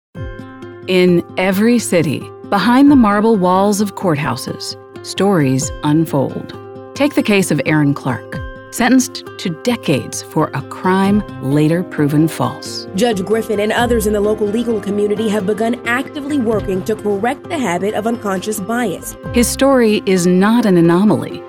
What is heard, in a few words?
TV Narration